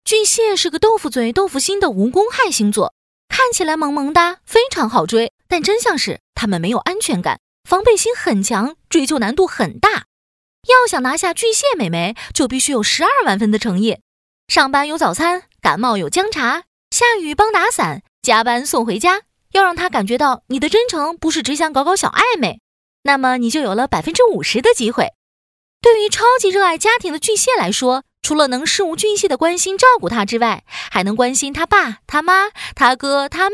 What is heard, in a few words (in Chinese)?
女 飞碟说MG; 腾讯视频-日播栏目星运365